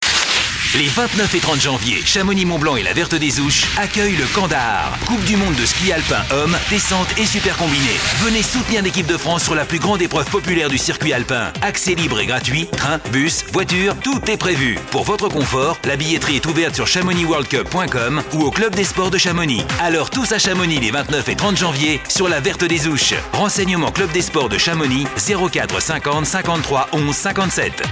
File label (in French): Spot Radio; France bleue